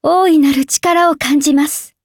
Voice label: upgrade, ja; Cookie Run

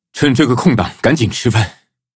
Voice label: level up, zh-hant

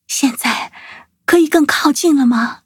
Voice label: levelup zh-hant; cookie run

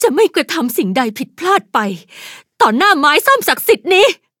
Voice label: level up, th; Cookie Run

